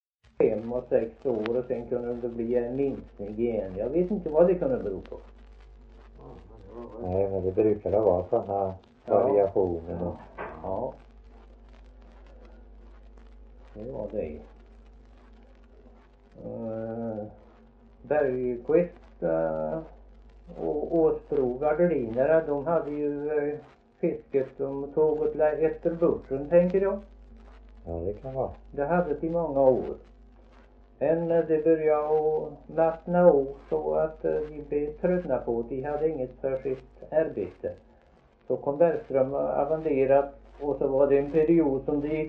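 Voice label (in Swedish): Värö Halland, dialekter svenska sagor; Folkminnen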